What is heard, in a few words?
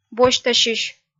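"босьтасьысь", Komi, female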